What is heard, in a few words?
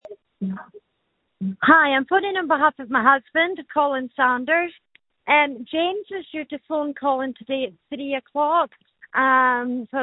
call recording